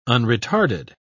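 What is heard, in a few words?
"unretarded", English, British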